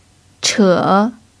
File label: "che3", chinese pinyin